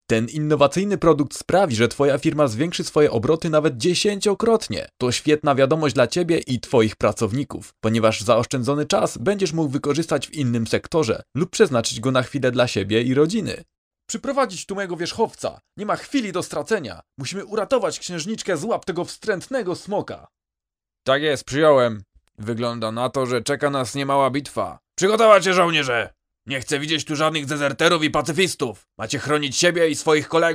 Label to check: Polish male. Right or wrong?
Right